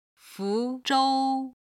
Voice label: "fu2zhou1", chinese